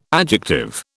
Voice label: "adjective", British